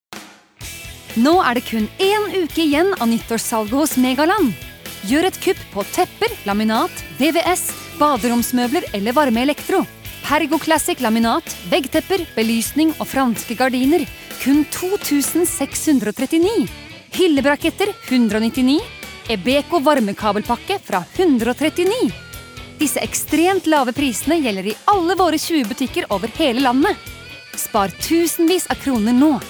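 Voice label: Norwegian hard sell; norsk; kvinne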